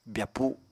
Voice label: "byapu", Apatani

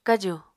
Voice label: "kaju", Apatani

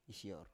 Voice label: "isior", Biak

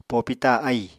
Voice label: "popita ahì", Apatani

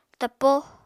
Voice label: "tapo", apatani

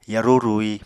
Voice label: "yarú rui", Apatani

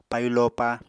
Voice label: "payu lopa", Apatani

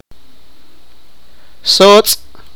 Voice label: sipakapense; bat

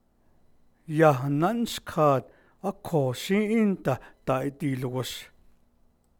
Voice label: navajo; conjunction; I will herd them in only then we will go to slee

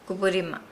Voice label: "fist", Nukuoro

